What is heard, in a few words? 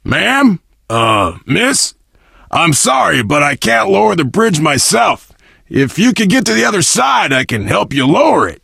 initial meeting, survivor voice biker